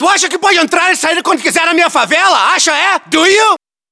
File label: hostile burst, battlechatter